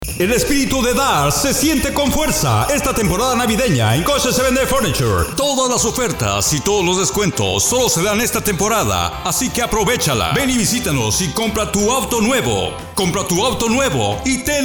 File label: Male